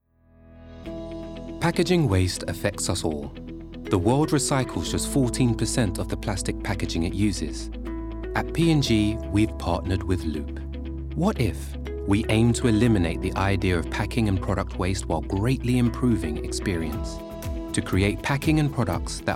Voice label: Corporate; PandG